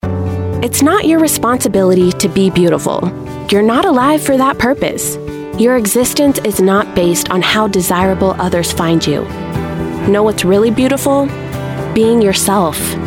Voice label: US English; The Body Shop